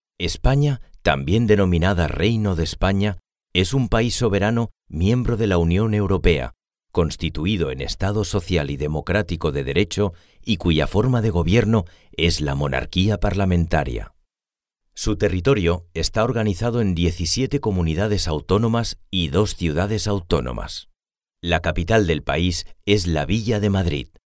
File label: male spanish castilian